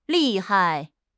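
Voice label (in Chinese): "利害", Chinese; HSK6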